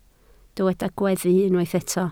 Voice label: "gweddu", Welsh

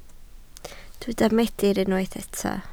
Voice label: "meidr", welsh

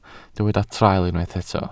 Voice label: "traul", Welsh

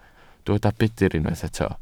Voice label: "budr", Welsh

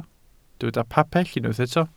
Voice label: "pabell", Welsh

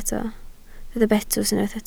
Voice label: "betws", Welsh

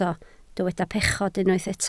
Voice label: "pechod", Welsh

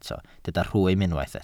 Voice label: "rhwym", Welsh

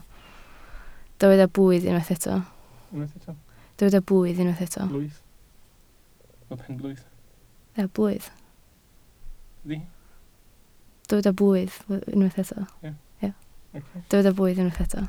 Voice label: "blwydd", Welsh

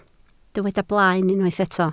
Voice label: "blaen", Welsh

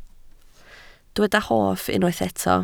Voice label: "hoff", Welsh

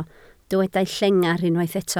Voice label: "llengar", Welsh

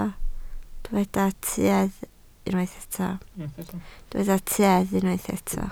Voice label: "tuedd", Welsh

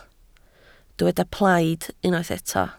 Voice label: "plaid", Welsh

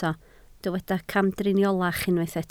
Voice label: "camdriniolach", Welsh

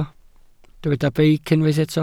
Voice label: "beic", Welsh

